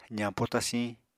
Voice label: "zañpo tasiñ", Apatani